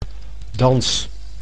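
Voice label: "dans", Dutch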